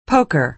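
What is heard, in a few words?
"poker", American English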